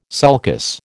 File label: "sulcus", American